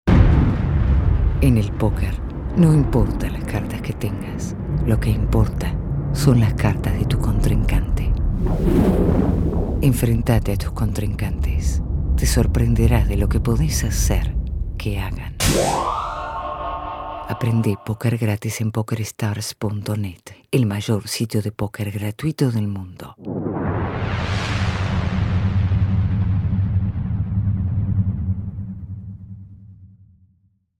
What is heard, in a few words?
spanish latin american tv shows